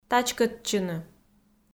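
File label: "тачкӧдчыны", Komi, female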